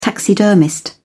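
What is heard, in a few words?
"taxidermist", British English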